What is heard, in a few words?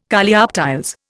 "Coleoptiles", Indian